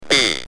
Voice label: "B", UK Speech